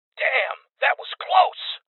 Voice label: Voices Armor Shot Male; rebel cops 2019